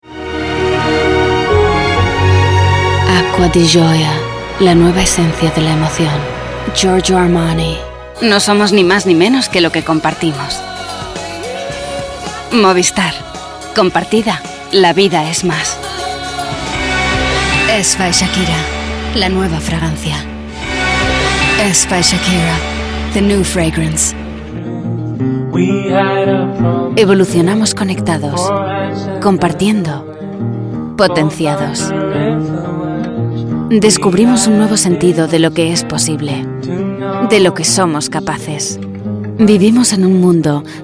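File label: European Spanish, General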